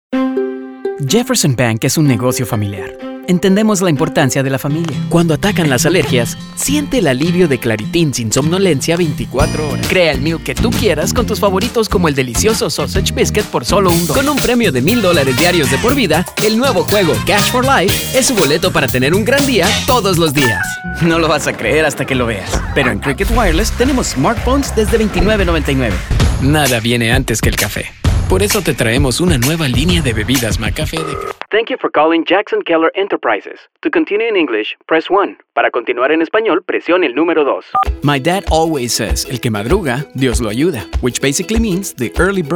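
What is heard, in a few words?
Commercial Spanish, Male Voice-Over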